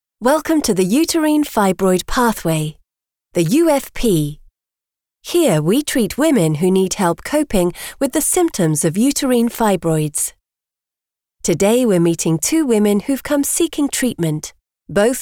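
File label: Medical, British English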